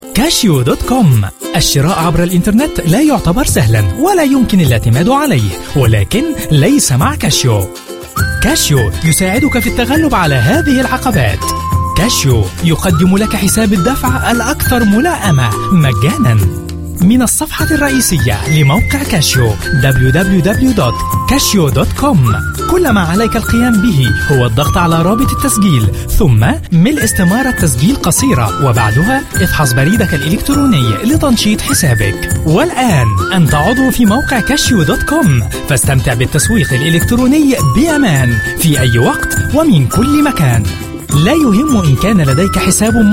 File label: arabic voice over male narrator